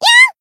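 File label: Voice damaged Japanese; myungwha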